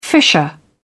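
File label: "fissure", British English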